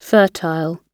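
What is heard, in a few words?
"fertile", British English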